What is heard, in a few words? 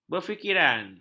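"berfikiran", Malay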